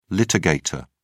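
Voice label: "litigator", British English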